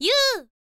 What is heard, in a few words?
girl